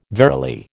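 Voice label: "verily", American English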